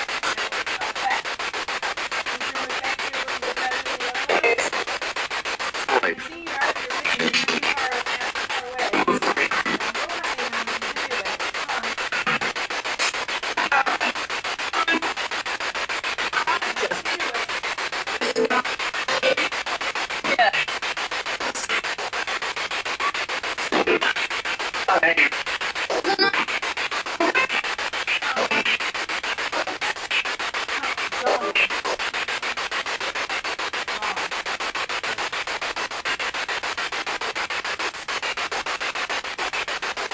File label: Bedroom Spirit Box; private residence freedom road apartment investigation return